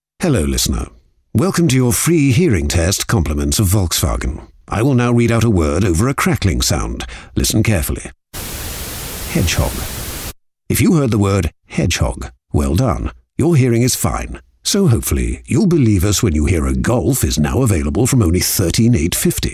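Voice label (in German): UK English Freundlich; Fremdtake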